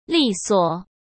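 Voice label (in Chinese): "利索", Mandarin Chinese; hsk; chinese-flashcards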